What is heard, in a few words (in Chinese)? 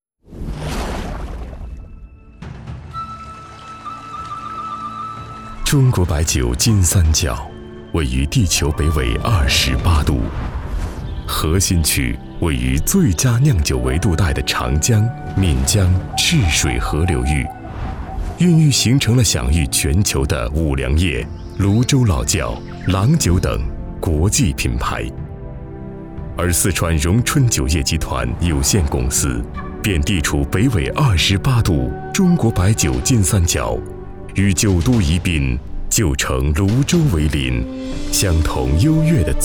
宣传片中国白酒